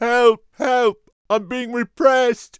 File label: peasant pissed; Warcraft III Frozen Throne